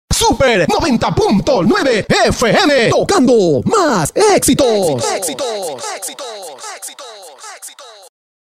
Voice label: cuña super fm tocando mas exitos

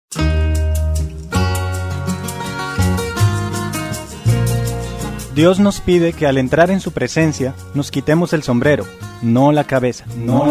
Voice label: cuña

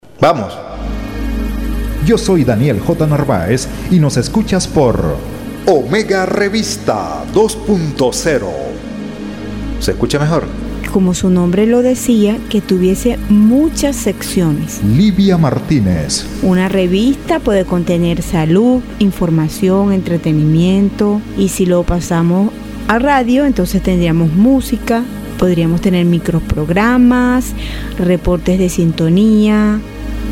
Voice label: promo storytelling; prog omega revista

